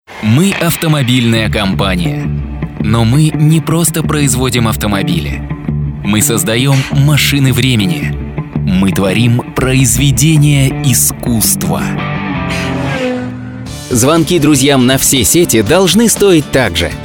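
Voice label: male voice, Russian; muzhskie golosa, diktory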